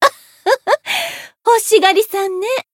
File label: chant